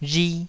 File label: "rhi2", taiwanese